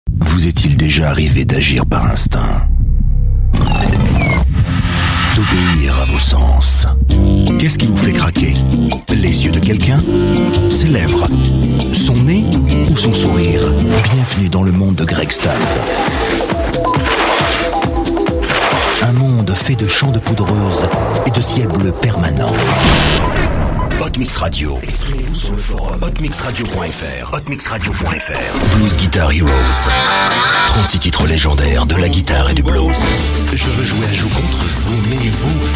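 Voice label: French, General; GoLocalise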